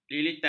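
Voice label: "lilitan", Malay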